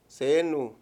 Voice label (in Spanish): "otro", Mayo; Palabras con distintas funciones